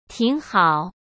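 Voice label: "挺好", Mandarin Chinese; chinese flashcards; hsk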